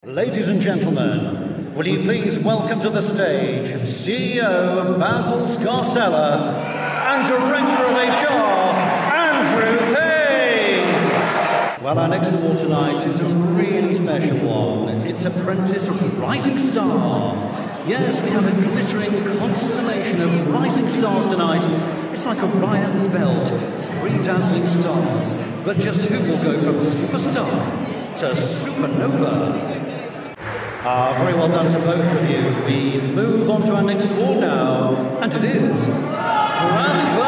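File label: voice of god live event, British Believable Voice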